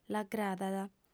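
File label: "l'agradat", female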